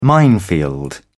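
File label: "minefield", British English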